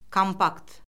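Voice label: "kompakt", Azerbaijani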